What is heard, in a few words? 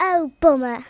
bummer, Speech Kidz; Worms Armageddon